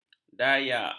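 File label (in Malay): "dayak", Malay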